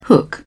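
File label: "hook", British English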